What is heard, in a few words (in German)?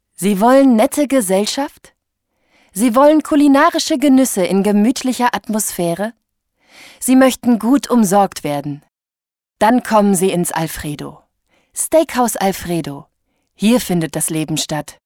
restaurant werbung